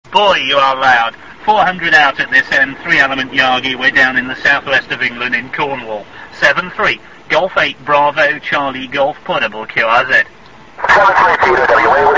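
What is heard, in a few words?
amateur radio contact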